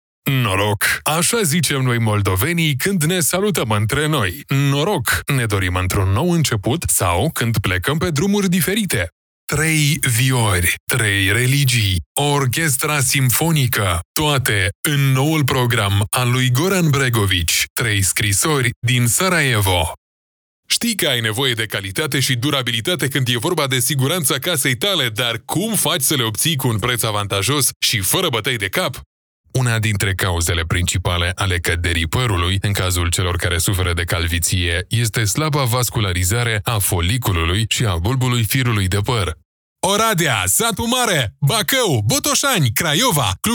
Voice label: romanian commercial

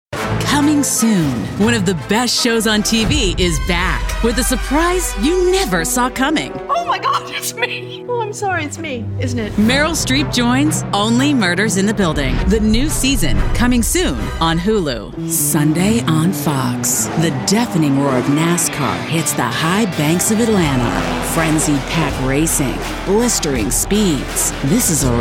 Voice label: english american promos

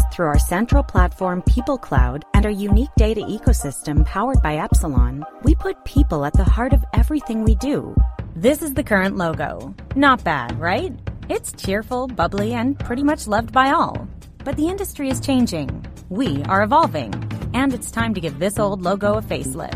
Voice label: english canadian explainer videos

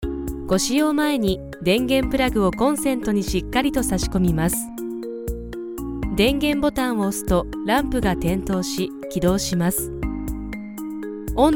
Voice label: japanese audio guides, japanese voice actors

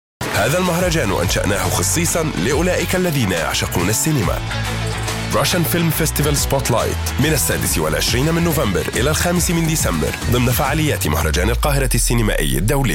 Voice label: arabic promos

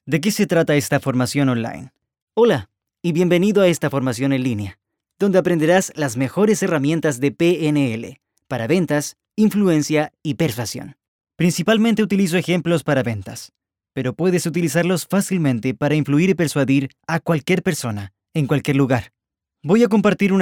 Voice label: spanish latin american e-learning

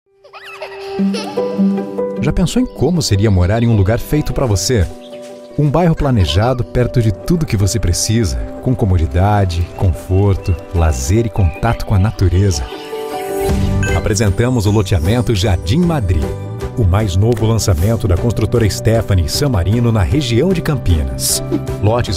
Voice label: portuguese brazilian explainer videos

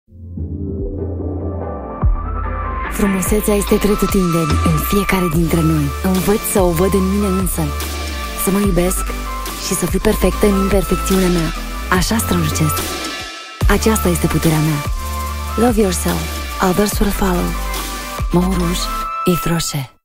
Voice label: romanian

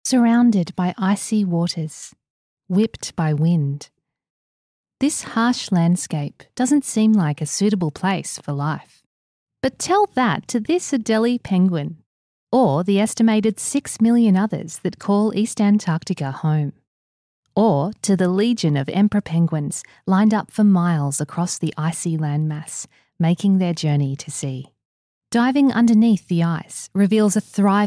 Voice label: English Australian narration

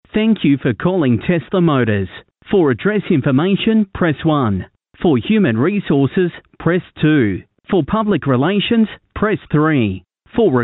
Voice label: english australian ivr

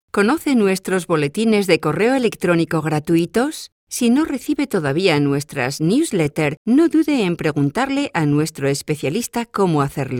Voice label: spanish promos

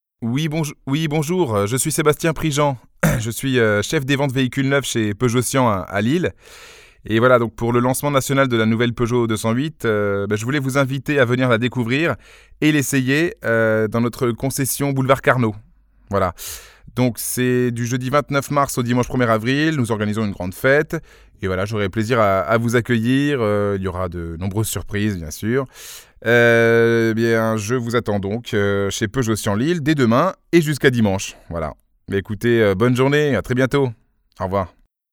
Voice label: french podcasts

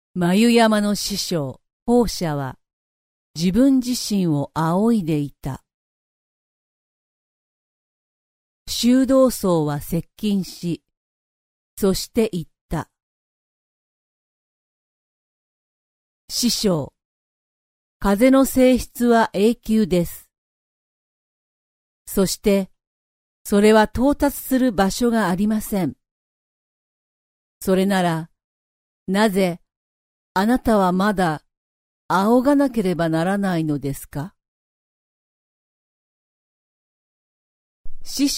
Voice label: japanese documentaries

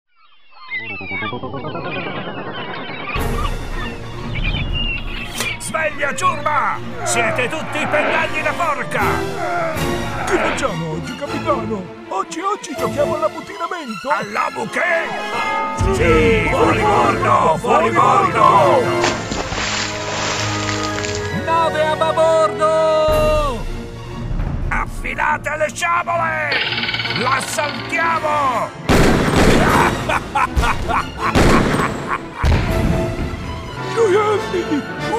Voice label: italian animation

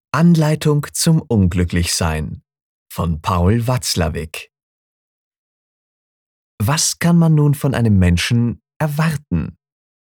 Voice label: german audiobooks

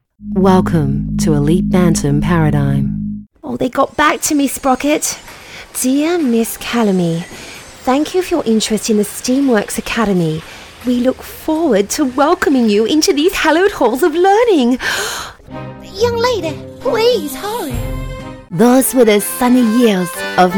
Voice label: english australian animation

